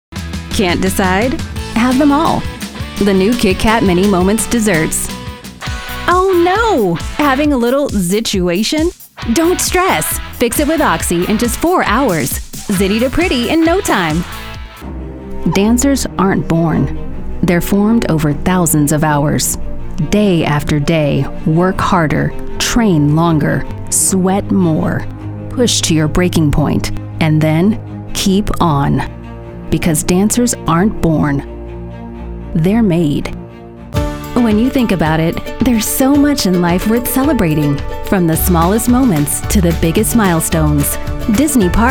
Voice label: Commercial